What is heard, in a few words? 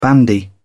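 "bandy", British English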